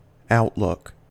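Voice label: "outlook", American English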